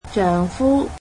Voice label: "husband", cantonese

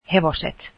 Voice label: finnish horses

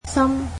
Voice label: "heart", Cantonese

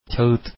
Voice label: turkish paper

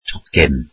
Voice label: welsh ticket

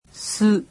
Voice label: japanese alphabet "su"

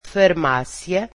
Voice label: portuguese pharmacy

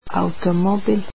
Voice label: "car", Bosnian

